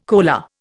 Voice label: "coaler", Indian